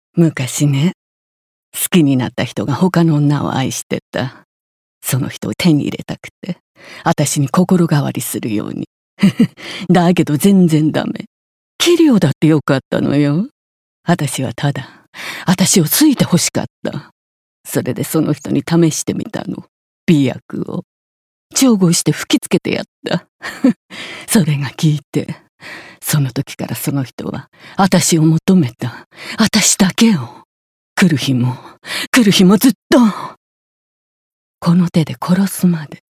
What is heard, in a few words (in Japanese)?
サイコパス